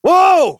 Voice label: Horse Stop Adult; Duviri